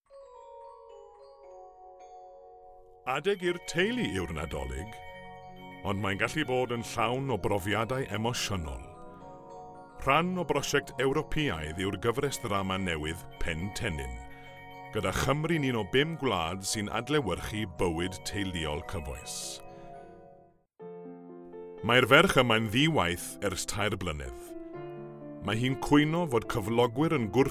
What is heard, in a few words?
Welsh Voice Over